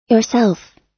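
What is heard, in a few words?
"yourself", US English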